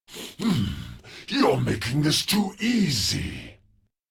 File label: Player Spotted, Battle Barks